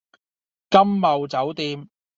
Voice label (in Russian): Гонконгский